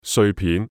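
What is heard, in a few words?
"碎片", Cantonese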